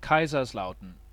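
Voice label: "Kaiserslautern", German; Кайзерслаутерн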